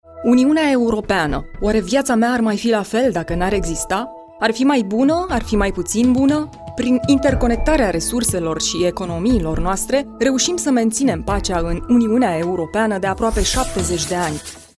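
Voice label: Romanian corporate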